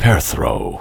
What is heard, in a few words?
perthro, voiceover